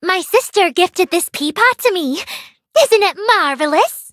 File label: touch, en; Cookie Run